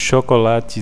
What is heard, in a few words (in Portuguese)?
chocolate, deformação de sinais de fala; chocolates de Dalí